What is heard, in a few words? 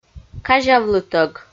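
"казявлытӧг", Komi, female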